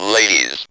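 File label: ROBVOX, robot voice; X-Static Goldmine 1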